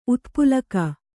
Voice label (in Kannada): "ಉತ್ಪುಲಕ", kannada